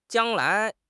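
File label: "jiang lai" Chinese; 汉语口语新时代-初级下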